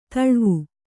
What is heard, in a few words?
"ತಳಿವು", Kannada